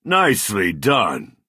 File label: Redguard male nice play